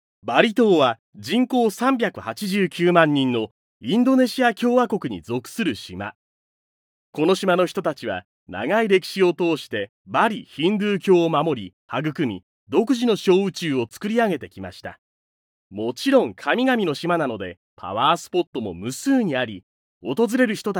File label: male